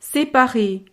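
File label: "separer", French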